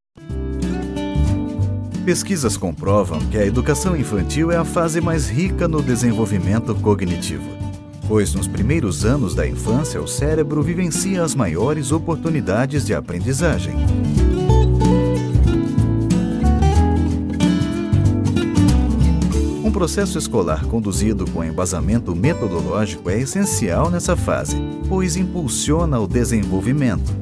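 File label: narr, male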